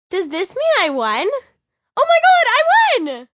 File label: Does This Mean I Won, Radio, Female, child; VoiceBox